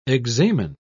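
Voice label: "examen", British English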